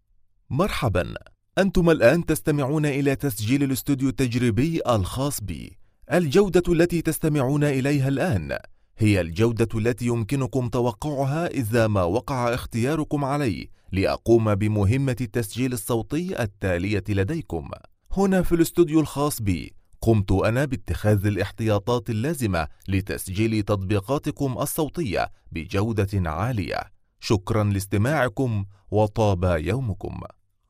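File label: Arabic, General; GoLocalise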